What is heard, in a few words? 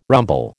"rumble", American